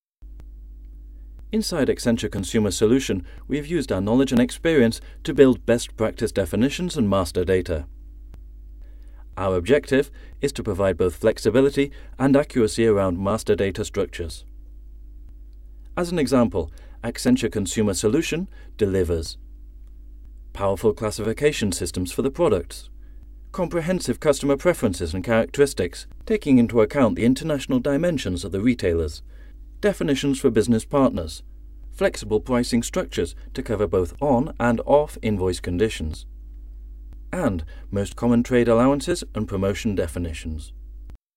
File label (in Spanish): voz video corporativo locutor ingles britanico nativo locuciones voz; Locución en inglés británico para consulting